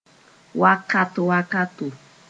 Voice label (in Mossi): "wakat-wakato", Mooré; from time to time